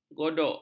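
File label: "godok", Malay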